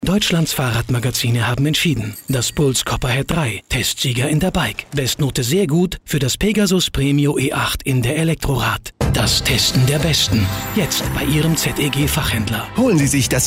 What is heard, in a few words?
radiospot national